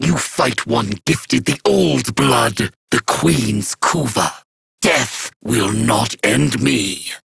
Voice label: Battle Taunt, Dialog; Kuva Lich; Persona C

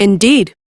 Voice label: Follow, Female; en; Warframe; Railjack Crew; Cephalon Suda

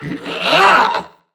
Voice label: Threatened Crewmen; Dialog; Barks; Battle; Warframe; CorpusCrewmen; CrewmanD

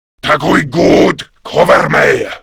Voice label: Cover Me, Grineer Male; Warframe; Battle Barks

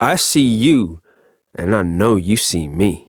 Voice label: Ambient Barks, en; Warframe; Mall Romance; Quincy

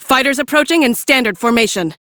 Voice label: Female; Warframe; Railjack; Cephalon Suda

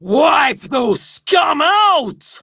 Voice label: Attack Prime Grineer Female; Tau; Battle Barks

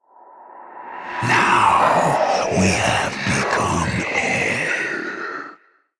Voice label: Whispers

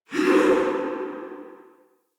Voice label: Wandering Ghost Soldier Attack, Vocals; Enemy

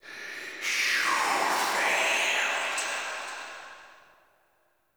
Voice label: Whispers; Cave; Ambience; Orokin; Warframe; Stalker

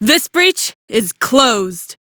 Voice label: Fix Breach, Female; en; Warframe; Railjack Crew; Cephalon Suda

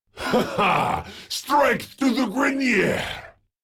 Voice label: Taunt, en

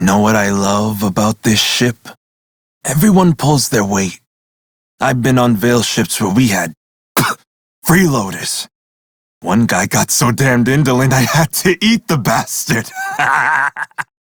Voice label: crew idle, male; Dialog; en; Warframe; Railjack Crew; Red Veil

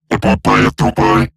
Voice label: Cover Me Robot Large; Dialog; Barks; Battle; en; Eximus Corpus; Corpus Robotic Large

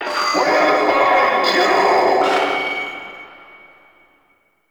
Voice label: Vocal Loudspeaker; Warframe; Duviri; Ambience; Town Escape; Dax

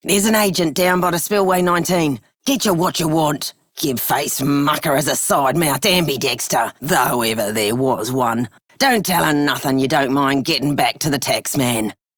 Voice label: Female Scum, en; Warframe; Solaris Venus

